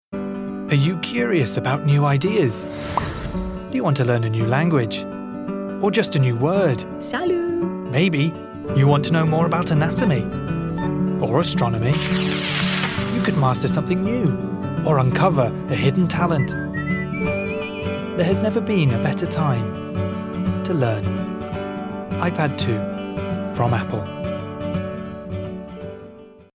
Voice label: male british english ipad comercial

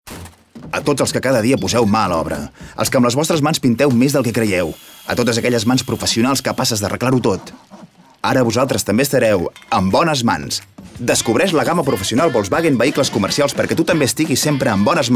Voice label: male catalan car comercial; ideasonora

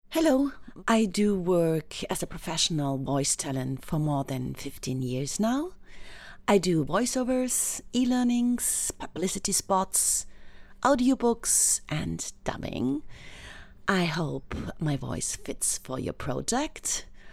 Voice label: female non native english presentation; ideasonora

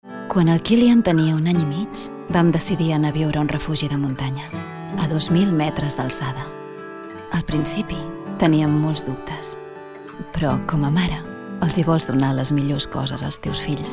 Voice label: female catalan comercial; ideasonora

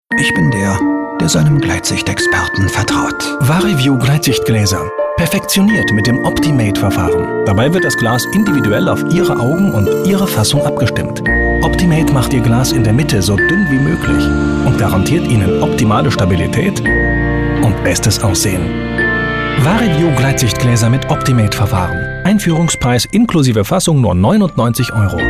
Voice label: male german commercial; ideasonora

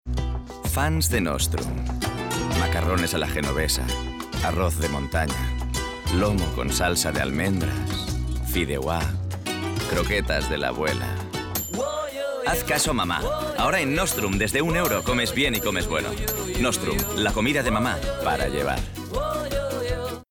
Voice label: male spanish restaurant comercial; ideasonora

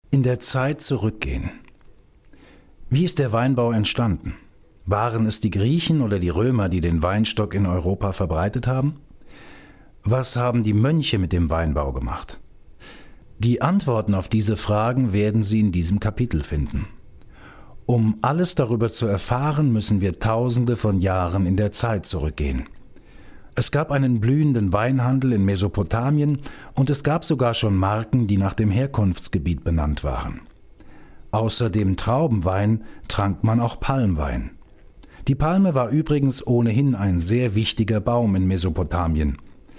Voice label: male german wine guide; ideasonora

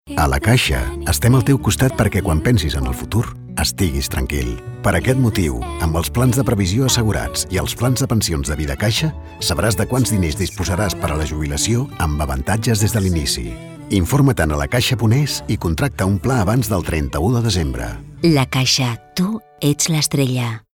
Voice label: male catalan comercial; ideasonora

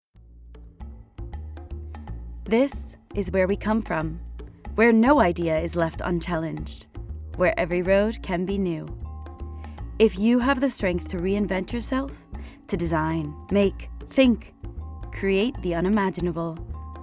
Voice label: female american english car comercial; ideasonora

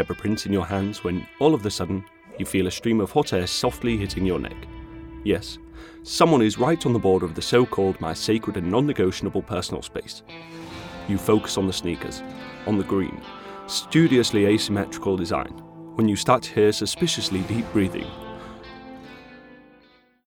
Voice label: male british english outlet comercial; ideasonora

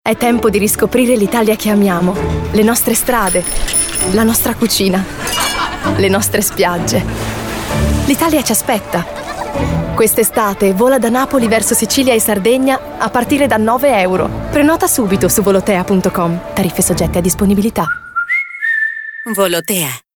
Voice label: female italian comercial

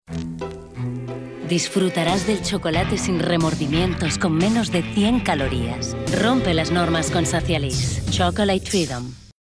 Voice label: female spanish chocolate comercial; ideasonora

